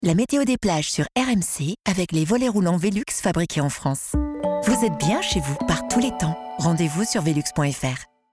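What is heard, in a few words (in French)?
Sweet, voix off féminine; Meteo Velux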